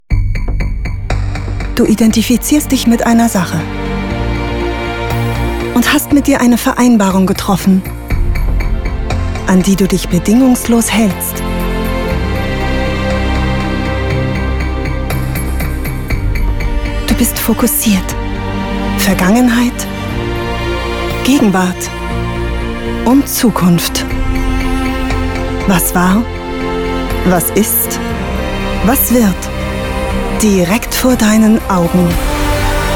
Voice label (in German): Werbung Sportbekleidung